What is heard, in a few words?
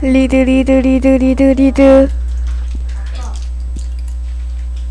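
"lead", spoken word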